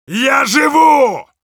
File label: battle cry, ru; Heavy